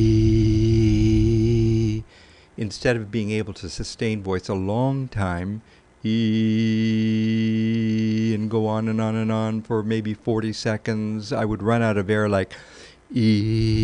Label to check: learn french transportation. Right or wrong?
Wrong